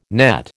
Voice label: "nett", American

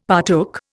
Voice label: "partook", Indian; Dictionary

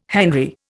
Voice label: "henry", Indian

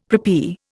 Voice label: "preppy", Indian; Dictionary

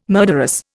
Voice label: "murderous", Indian

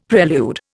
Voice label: "prelude", Indian; Dictionary

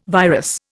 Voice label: "virus", Indian

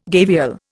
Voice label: "gavial", Indian

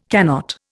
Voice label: "cannot", Indian

